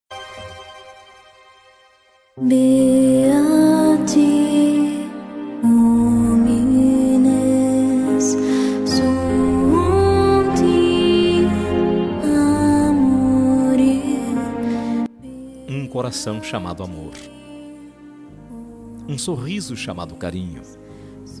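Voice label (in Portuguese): aniversario mae plural masculino, telemensagem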